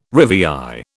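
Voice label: "rivière", British English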